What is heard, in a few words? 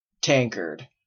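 "tankard", Canadian English; pronunciation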